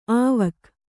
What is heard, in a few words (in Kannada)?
"ಆವಕ್", Kannada